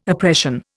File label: "oppression", Indian